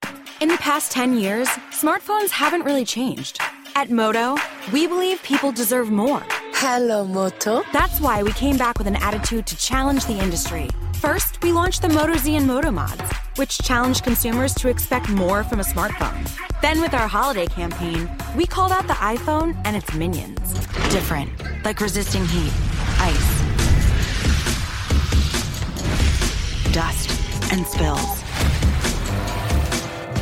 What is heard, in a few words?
commercial female; commercial women